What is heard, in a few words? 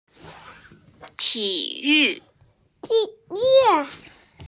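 "ti3yu4", Mandarin Chinese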